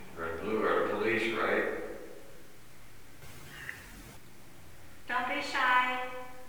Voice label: speaking then unknown sigh then speaking; Old 6th Detroit Precinct